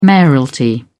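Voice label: "mayoralty", British English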